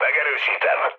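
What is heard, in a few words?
player killed enemy, mood low, Hungarian; speech; War Thunder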